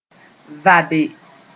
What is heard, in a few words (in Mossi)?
"vabe", moore